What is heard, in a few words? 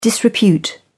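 "disrepute", British English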